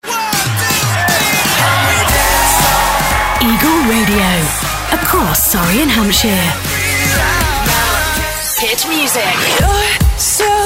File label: IMAGING